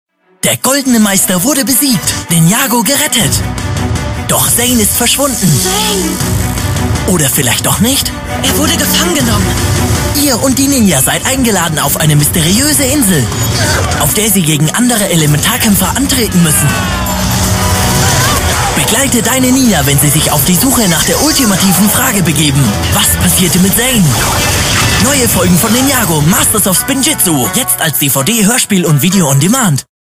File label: Trailer; Lego Ninjago